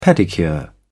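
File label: "pedicure", English, British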